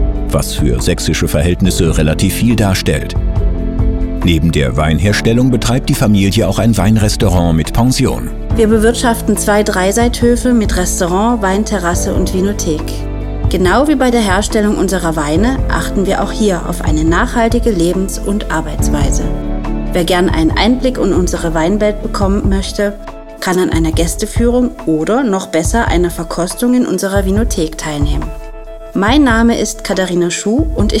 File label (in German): Auszug Audioguide zum Klausenberg und Weingut; Weingut Schuh; Weinwanderweg